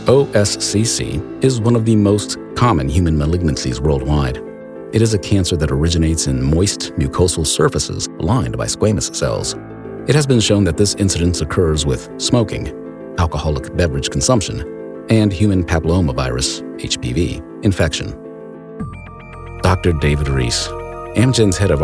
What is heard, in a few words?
english american medical narration